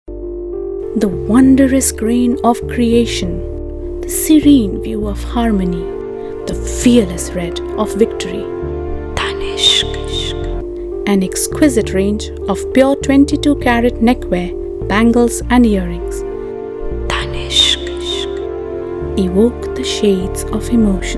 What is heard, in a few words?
promo english